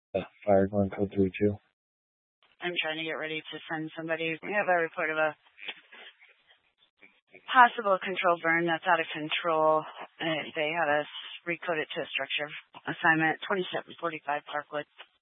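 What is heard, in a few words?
Police Dispatch, Scanner audio; Police 1 Dispatch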